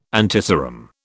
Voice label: "antiserum", British

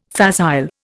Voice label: "facile", Indian

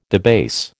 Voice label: "debase", American

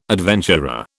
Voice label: "adventurer", British

